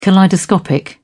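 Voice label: "kaleidoscopic", British English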